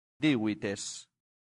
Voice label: "divites", latin; santillana

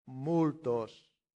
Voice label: "multos", latin; santillana

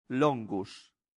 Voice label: "longus", latin; santillana

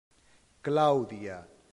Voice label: "claudia", Latin; santillana

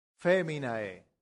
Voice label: "feminae", Latin; santillana

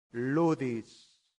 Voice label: "ludis", Latin; santillana

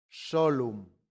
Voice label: "solum", latin; santillana

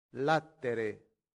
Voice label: "latere", latin; santillana

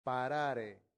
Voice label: "parare", latin; santillana

